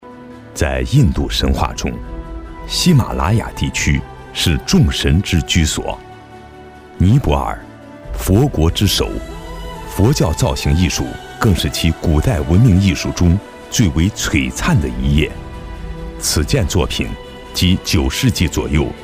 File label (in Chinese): 专题 宗教, 男国; 佛塔